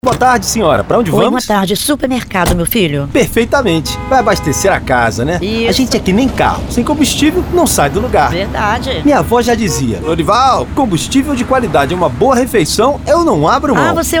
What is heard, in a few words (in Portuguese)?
Spot Supermercado; Plural